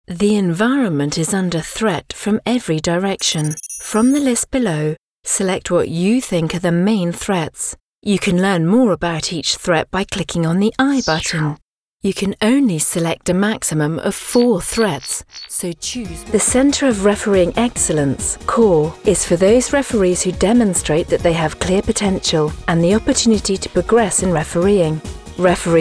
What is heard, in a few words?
Corp eLearn Mix